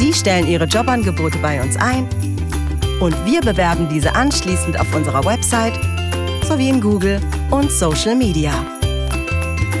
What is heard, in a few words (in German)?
werbung kita jobs